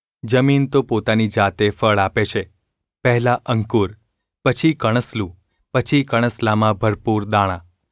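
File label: gu ulb mrk, Gujarati; mrk 4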